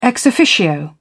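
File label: "ex officio", British English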